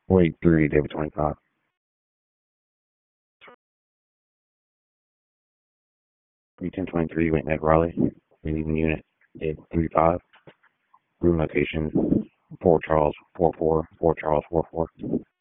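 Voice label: Sheriff's Office Dispatch 1, p25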